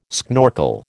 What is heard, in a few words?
"schnorkel", American